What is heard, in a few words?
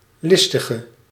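"listige", Dutch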